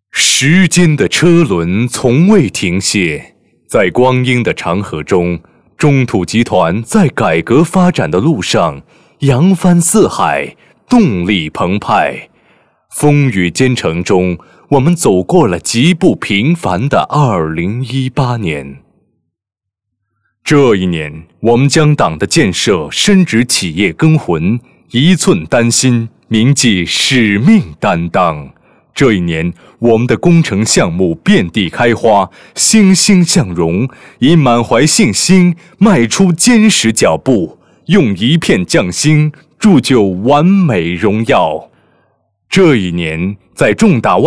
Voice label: 专题类; 中土集团

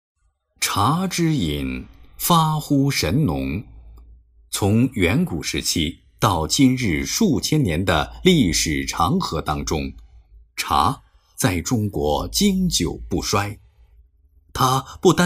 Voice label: 国语男声配音; 经济配音

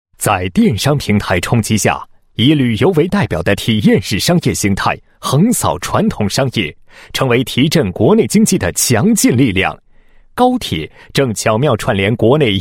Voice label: 男 房地产项目; 中国古典文化主题亲子教育基地长乐坊

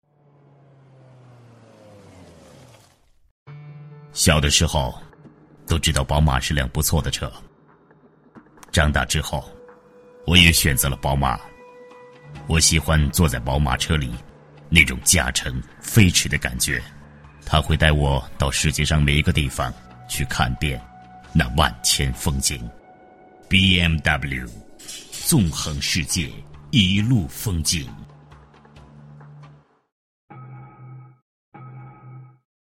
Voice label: 男 广告, 浑厚磁性, 国语男声配音; 宝马广告

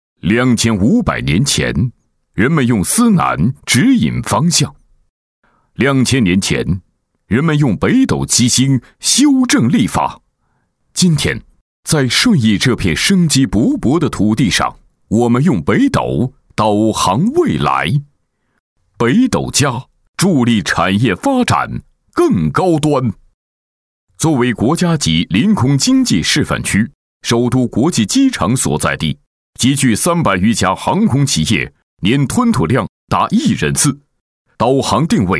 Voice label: 科教宣传, 厚重; 中国北斗

